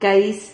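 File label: "cais", Spanish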